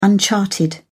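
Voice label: "uncharted", British English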